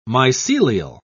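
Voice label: "mycelial", British English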